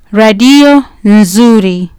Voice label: "radio nzuri", Kiswahili